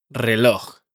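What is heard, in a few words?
"reloj", Spanish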